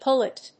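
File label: "pullet", English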